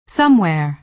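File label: "somewhere", American English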